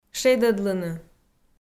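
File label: "шедӧдлыны", Komi, female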